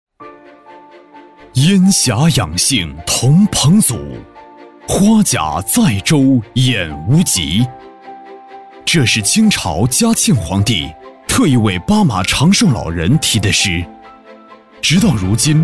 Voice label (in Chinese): 男 医疗广告片